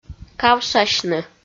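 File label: "кавшасьны", Komi, female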